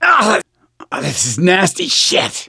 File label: boomer reaction, voice; gambler